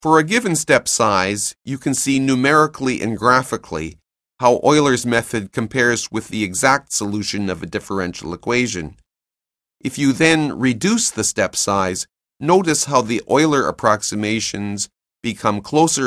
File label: narration; CALCULUS 7E; cengage